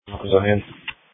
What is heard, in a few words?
Police Dispatch